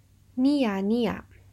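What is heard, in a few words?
"niya niya", Japanese; ニヤニヤ – niya niya; Grinning about something.; manga onomatopoeia sound effects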